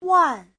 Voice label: "wan4", Chinese; 万; Giáo trình chuẩn HSK3